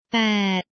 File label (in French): Huit, thai